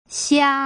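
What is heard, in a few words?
"xia", Putonghua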